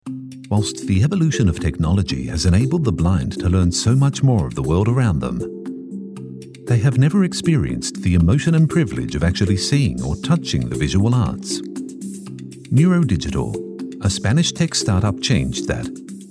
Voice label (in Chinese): 外籍英男,科技产品